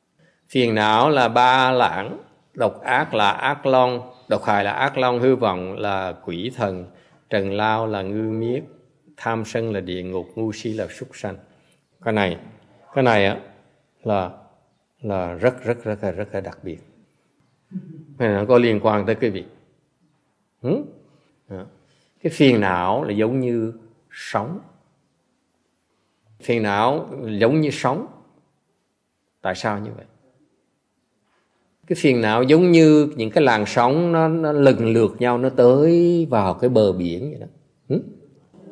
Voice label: Vietnamese; 6Patriarch; Afflictions are the Waves